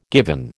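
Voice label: "given", American English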